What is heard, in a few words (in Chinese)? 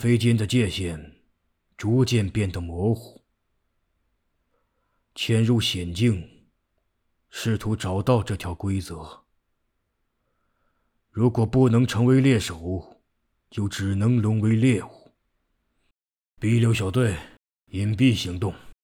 老成声线参考